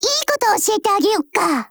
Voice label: TIP JP; MP446Mod

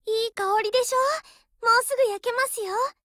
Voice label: DIALOGUE JP; M45

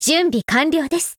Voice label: Upgrade JP; Springfield

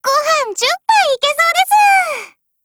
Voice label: feed, JP

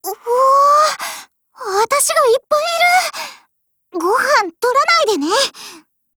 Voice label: COMBINE JP

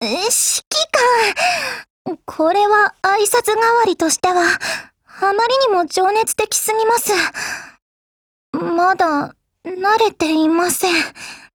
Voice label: DIALOGUE JP; M14Mod

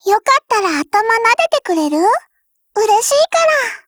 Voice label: DIALOGUE, JP; G41

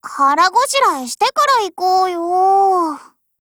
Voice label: LOADING, JP

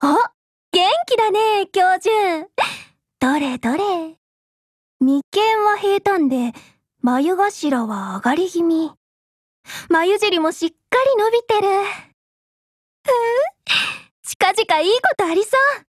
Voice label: AFTERNOON JP; luna

